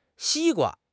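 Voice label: "xi1 gua1", Mandarin Chinese; HSK2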